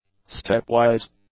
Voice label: "stepwise", English; adjectives

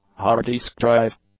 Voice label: "hard disk drive", English; nouns

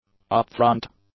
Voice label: "upfront", english; adjectives

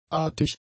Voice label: "artig", German; adjectives

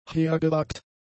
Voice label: "hergewagt", German; adjectives

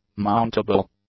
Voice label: "mountable", English; adjectives

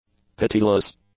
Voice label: "pitiless", english; adjectives

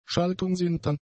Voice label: "schaltungsintern", german; adjectives

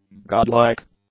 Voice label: "godlike", english; adjectives

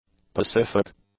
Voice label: "pacific", English; adjectives

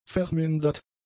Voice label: "vermindert", German; adjectives

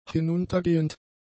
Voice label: "hinuntergehend", German; adjectives

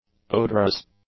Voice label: "odorous", english; adjectives

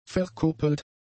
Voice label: "verkuppelt", German; adjectives

